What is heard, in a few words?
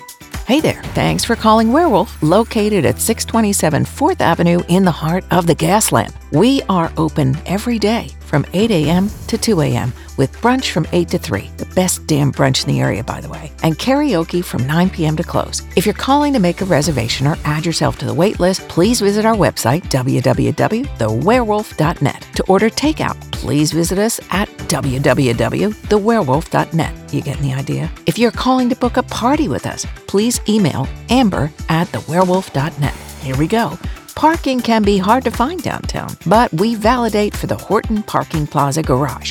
Restaurant IVR; Wearwolf bar and Restaurant